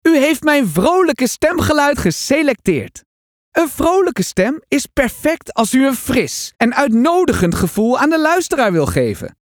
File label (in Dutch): Vrolijk